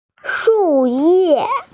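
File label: "shuye", Mandarin Chinese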